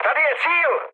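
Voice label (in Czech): Czech near waypoint, mood medium; speech; War Thunder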